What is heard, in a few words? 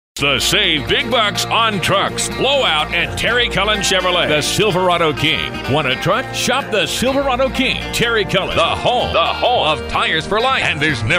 radio spot, automotive